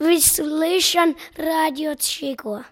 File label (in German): russisch